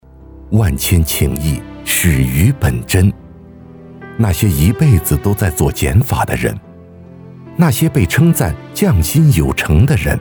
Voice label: 男 深邃磁性; 企业专题片; 匠人匠心

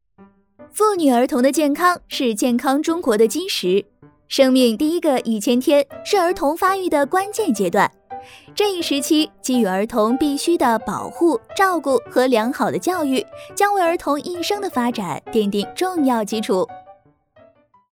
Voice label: 女 课件 甜美7分甜

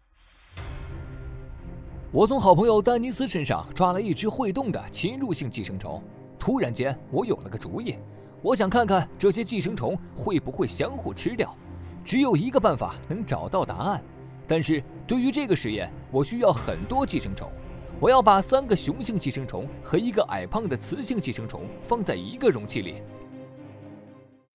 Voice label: 男 译制片 解说

